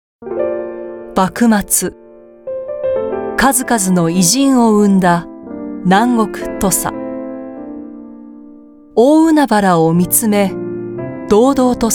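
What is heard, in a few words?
Japanese female documentary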